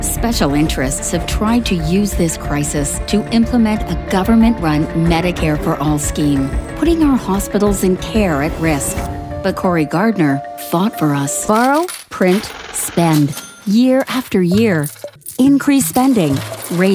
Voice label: english american political ads